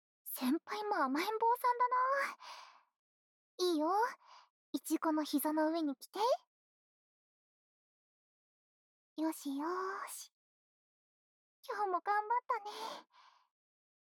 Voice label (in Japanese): よしよしボイス